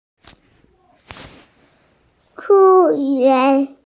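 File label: "park", hearing impaired; gong1yuan2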